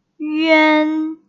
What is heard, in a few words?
"üan", Pinyin Finals